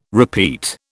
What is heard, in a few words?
"repeat", British